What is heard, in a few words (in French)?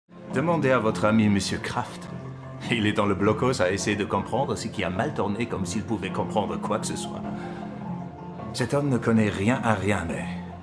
voices doublage francais